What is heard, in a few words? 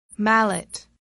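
"mallet", English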